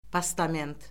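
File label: "postament", Azerbaijani; Orfoqrafiya Lüğəti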